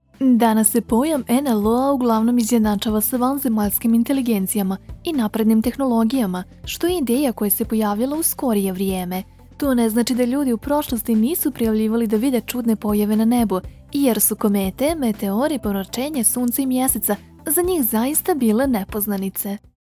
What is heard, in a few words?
bosnian commercial; Serbian; Professional Serbian native voice-over actor from Belgrade